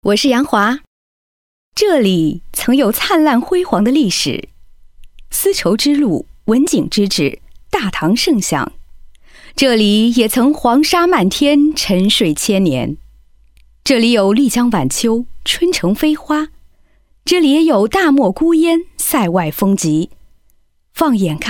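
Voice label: chinese mandarin female narration; voice demos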